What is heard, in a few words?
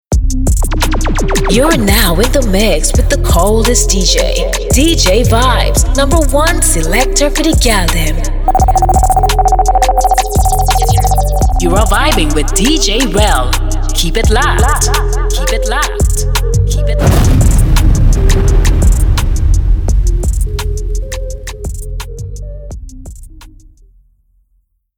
Trini gyal dj drops with music; Trini Female; dj drops by various voices